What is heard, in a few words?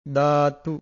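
"daatu", Pali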